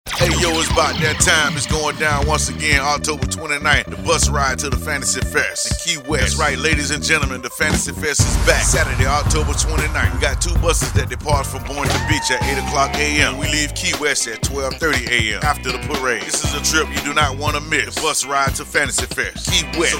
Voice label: Commercial; Fantasy Fest